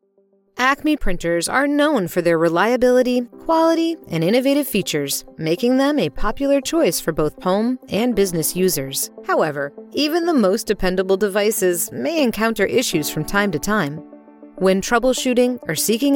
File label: e-Learning Sample; Printer Support; Acme